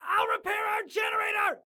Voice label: Self Repair Generator, Excited Guy

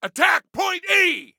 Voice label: ATTACK, Soldier Male

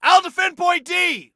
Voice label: ILL DEFEND, Male; T2 Male 01

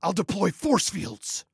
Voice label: Self Task Deploy Forcefields, Male

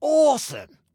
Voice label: Compliment Awesome, Male

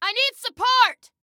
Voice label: Need Support, Female

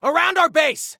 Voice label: Enemy Around Our Base, Soldier Male

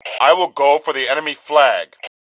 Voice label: Self Attack Flag, Male; T2 Male 02

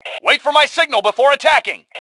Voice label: Attack Attack Wait, Male; T2 Male 01

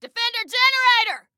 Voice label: Defend Generator, Female

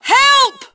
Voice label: Team Help, Female; T2 Female 02

